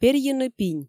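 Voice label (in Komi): перйыны пинь, Komi, female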